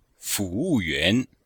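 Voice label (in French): "fu wu yuan", Chinese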